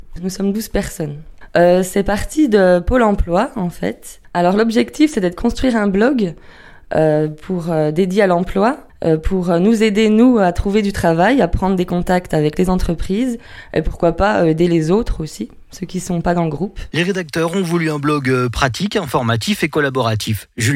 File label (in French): Interview Plein Air